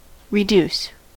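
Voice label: "reduce", US English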